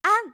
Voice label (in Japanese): "あん", 明るい声